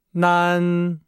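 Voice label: "nan", Chinese; Nihao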